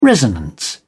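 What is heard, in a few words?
"resonance", British English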